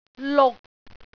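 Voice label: "luk6", Cantonese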